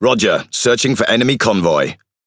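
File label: MESSAGE MOVE RIDGE, British English; PVE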